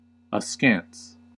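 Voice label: "askance" English, US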